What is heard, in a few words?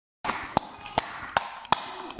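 "ce4suo3", Mandarin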